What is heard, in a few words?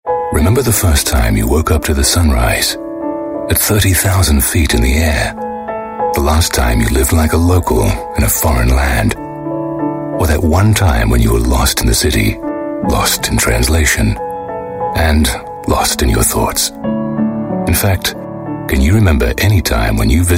AU English; UOB Privilege Miles